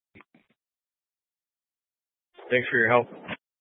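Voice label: Incident Tac 1, Scanner audio recording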